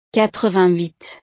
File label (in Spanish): "quatre-vingt-huit", frances